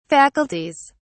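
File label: "faculties", British English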